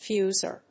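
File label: "fuser", English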